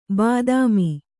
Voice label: "ಬಾತ್ಮಿ", Kannada

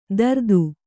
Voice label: "ದರ್ದು", Kannada

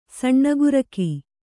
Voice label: "ಸಣ್ಣಗುರಕಿ", kannada

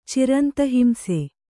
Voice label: "ಚಿರಂತಹಿಂಸೆ", kannada

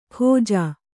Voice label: "ಖೋಜಾ", kannada

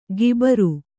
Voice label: "ಗಿಬರು", Kannada

